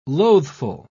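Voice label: "loathful", English, British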